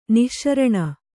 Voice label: "ನಿಶಾರಣ", Kannada

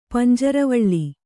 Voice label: "ಪಂಜರವಳ್ಳಿ", Kannada

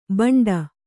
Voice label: "ಬಂಡಿ", Kannada

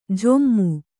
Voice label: "ಝೊಮ್ಮು", Kannada

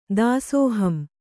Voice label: "ದಾಸೋಹಂ" Kannada; dāsōham; noun; = ದಾಸೋಹ -1.

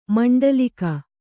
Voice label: "ಮಂಡಲೀಕ", Kannada

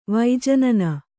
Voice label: "ವೈಜನನ", Kannada

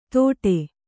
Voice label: "ಥೋಡೆ", Kannada

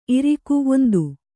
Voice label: "ಇರಿಕೆ", Kannada

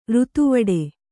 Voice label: "ಋತುವಡೆ", Kannada

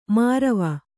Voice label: "ಮಾರವ", Kannada

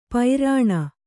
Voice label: "ಪೈರಾಣ", Kannada

